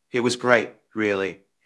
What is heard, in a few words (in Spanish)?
Voice Acting es M